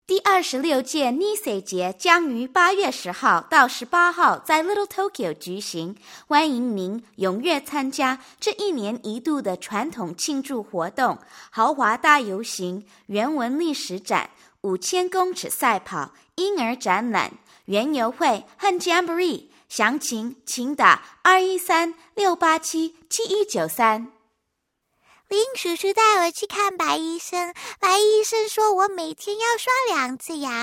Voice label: mandarin

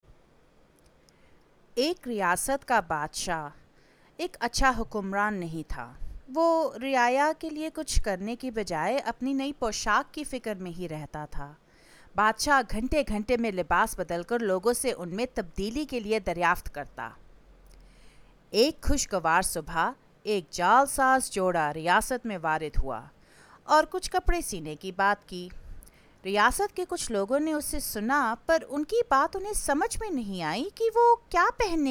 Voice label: languages accents women urdu

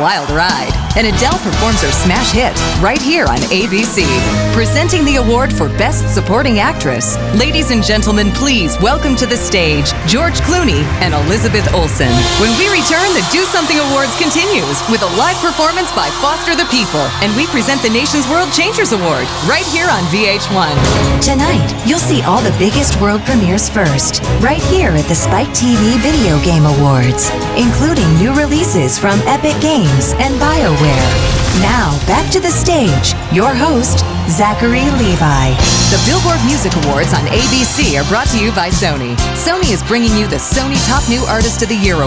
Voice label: show announce; show and stadium announcers

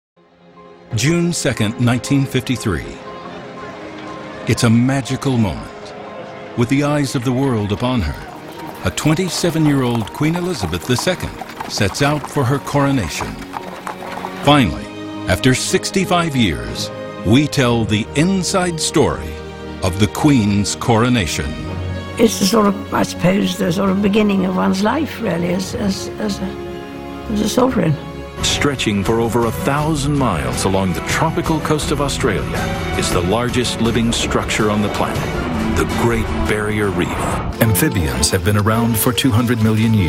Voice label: narration documentary male farmer

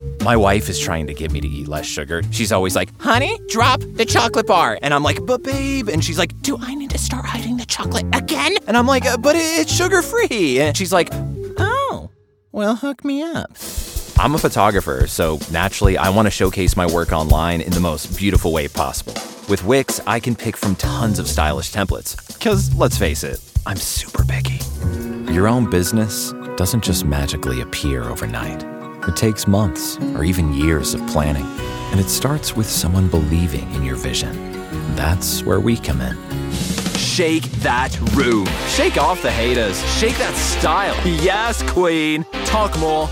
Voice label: commercial men

